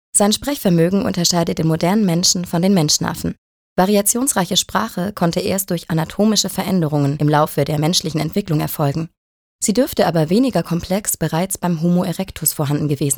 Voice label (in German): Sprache Neutral